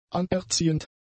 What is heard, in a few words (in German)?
"anerziehend", German; adjectives